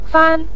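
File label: "fan" neutral tone, Mandarin Chinese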